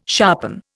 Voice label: "sharpen", Indian

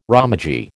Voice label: "romaji", American

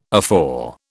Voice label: "afore", British

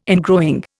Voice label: "ingrowing", Indian

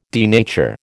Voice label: "denature", American

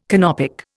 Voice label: "Canopic", Indian

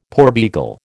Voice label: "porbeagle", American

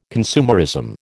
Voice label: "consumerism", American

